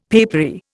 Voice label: "papery", Indian; Dictionary